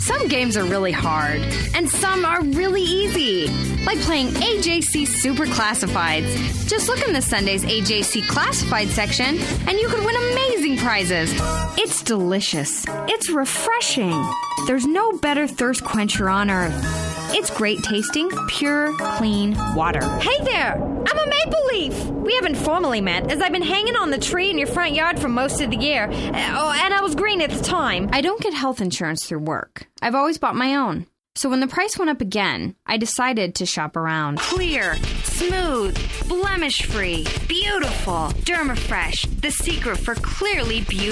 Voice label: Commercial, Female voices

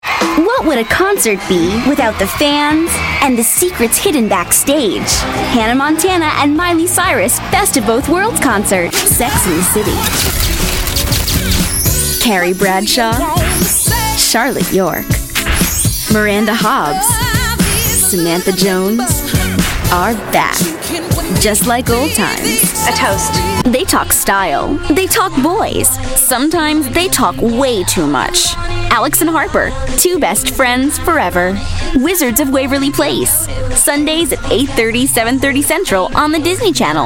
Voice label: Female voices, Promo

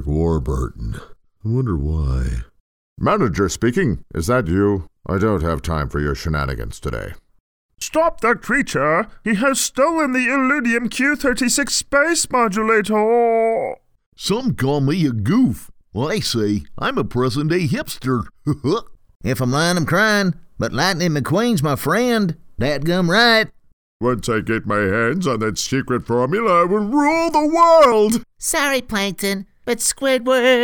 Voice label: Character, Male voices

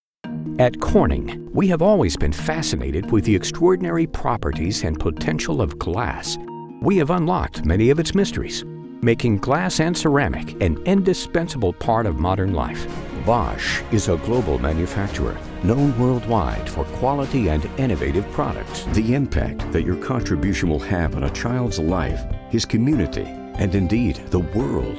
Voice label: Narration, Male voices

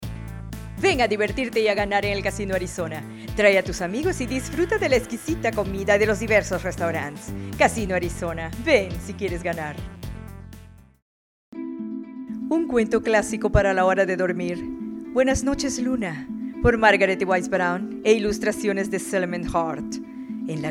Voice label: Commercial; Female voices

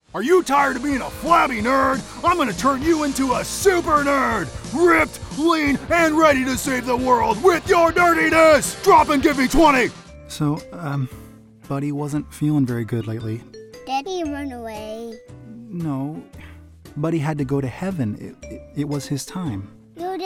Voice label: Characters, Male voices

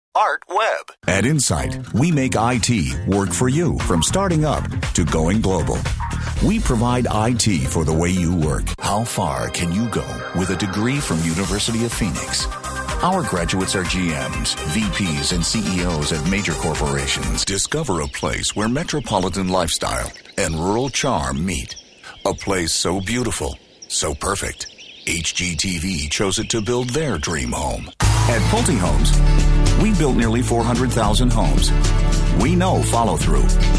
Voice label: Commercial, Male voices

